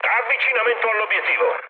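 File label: Italian near waypoint mood high, speech; War Thunder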